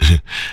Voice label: VOX FX; E-Lab; X Static Goldmine 2; akai